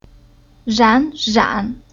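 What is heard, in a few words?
"Ran", Chinese syllable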